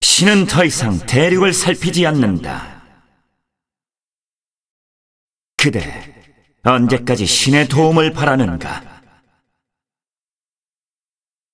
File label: cinematic voice; lineage2